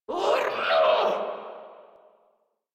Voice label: Surprised Grineer Female; Warframe